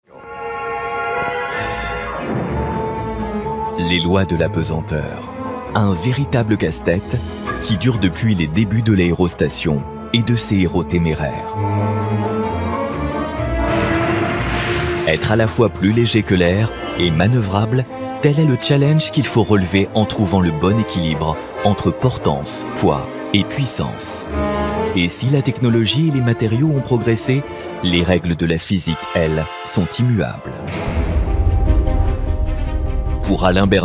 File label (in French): droit technique, comedien voix off homme; ZEPPELIN RETOUR VERS LE FUTUR droit, technique